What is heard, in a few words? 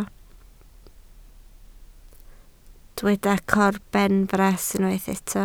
"corbenfras", Welsh